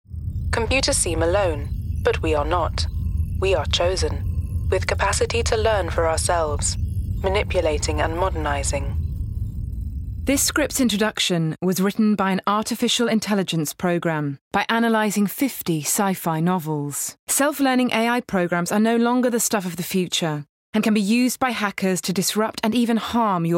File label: AI RADIO; 50 SCI FI NOVELS